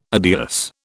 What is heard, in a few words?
"adios", British